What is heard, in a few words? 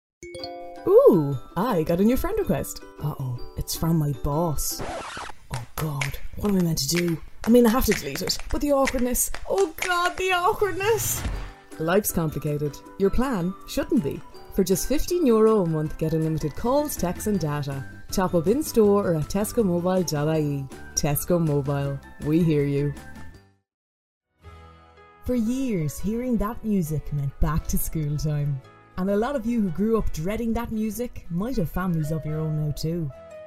Vocal Reel